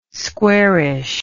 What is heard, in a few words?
"squarish", English